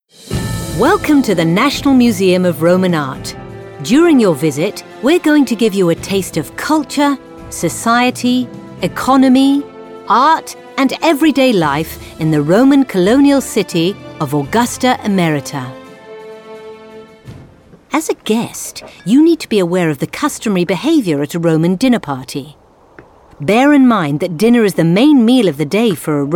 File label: female british english guide comercial; ideasonora